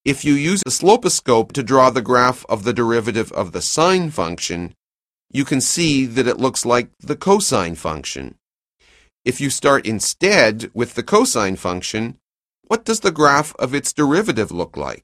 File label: narration; CALCULUS 7E; cengage